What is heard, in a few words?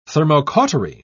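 "thermocautery", British English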